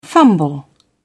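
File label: "fumble", British English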